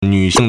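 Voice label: "nv3xing4", Mandarin Chinese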